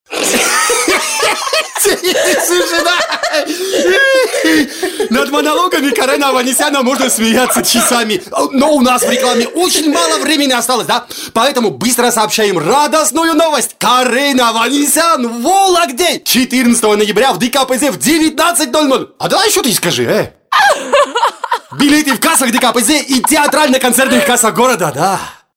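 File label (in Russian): kavkazec, Caucasian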